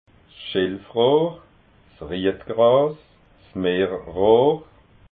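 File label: "schilfrohr", Alsatian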